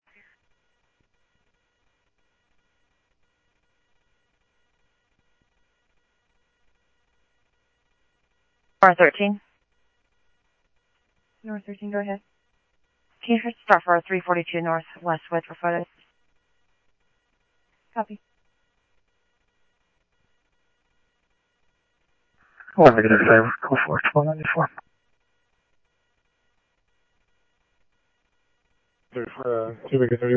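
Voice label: Simulcast police department, scanner audio trunk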